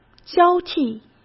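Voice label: "交替", Mandarin Chinese; chinese-flashcards; hsk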